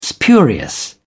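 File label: "spurious", English, British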